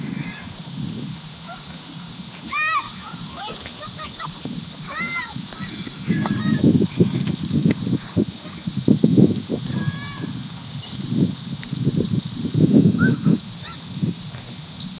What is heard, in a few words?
soft chatter of women wor